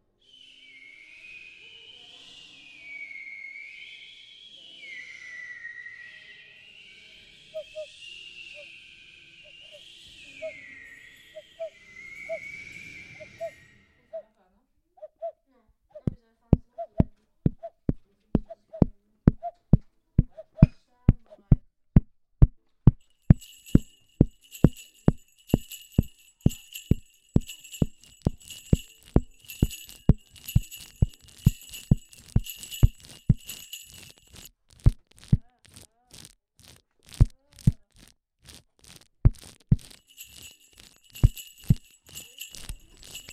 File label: asmr; agami; chamradio